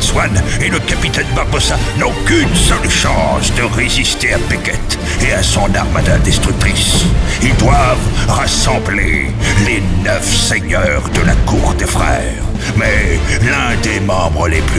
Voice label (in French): Voix De Pirate